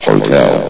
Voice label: "hotel", vox; Source Engine; bshift

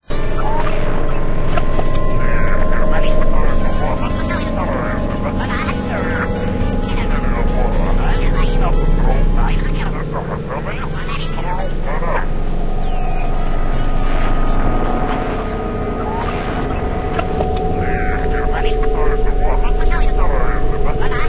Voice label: radio voices; Source Engine; de deadblock

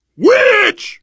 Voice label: warn witch, biker voice

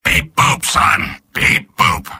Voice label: robot

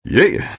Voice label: taunt cong fun; engy; tf; taunts

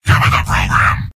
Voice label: soldier jeers; source engine; tf; mvm

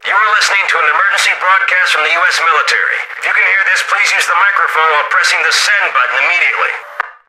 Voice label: radio initial broadcast, soldier; left4dead; Source engine

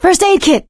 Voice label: spot first aid, survivor voice; source engine; left 4 dead 2; producer

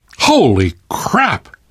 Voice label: violence awe, survivor voice

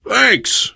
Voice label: thanks, player survivor voice biker; source engine; left 4 dead 2

